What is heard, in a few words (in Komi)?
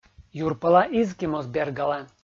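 "юрпӧла изки моз бергалӧ" Komi, male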